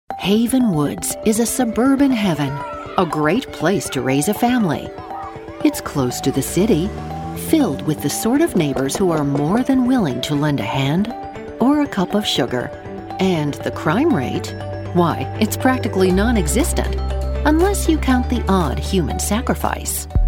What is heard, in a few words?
Broadcast Narration